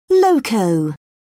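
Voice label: "loco", English, British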